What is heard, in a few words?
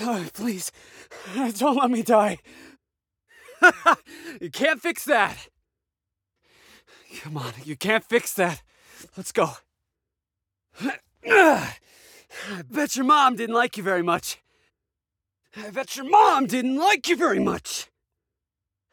Recruit Male